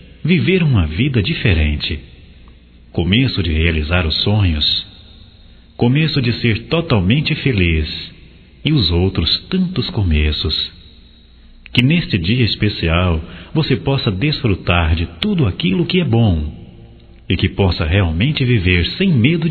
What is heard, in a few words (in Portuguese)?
Aniv geral carinho e admiração; Telemensagens 2017; Aniversarios